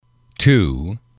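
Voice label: "two", English, male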